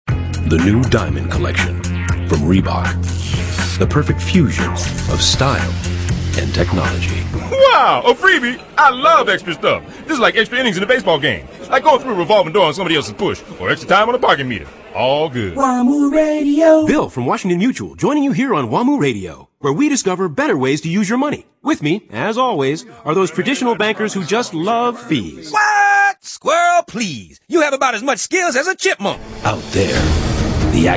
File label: male, mid30s mid40s cool